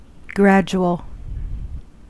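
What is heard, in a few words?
"gradual", American English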